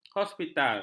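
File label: "hospital", Malay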